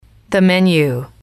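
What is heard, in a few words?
the menu, female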